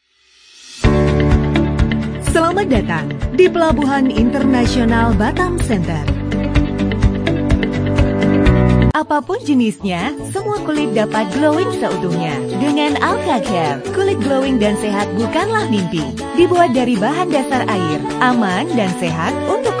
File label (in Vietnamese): Indo, Giọng nữ; tiếng Indonesia bản xứ